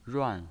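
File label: "ruan", Mandarin Chinese pinyin voice, fourth tone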